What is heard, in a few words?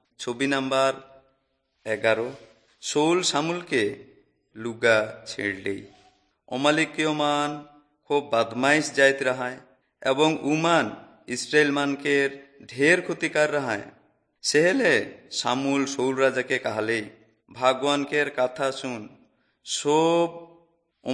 servants of god urao, kurukh drama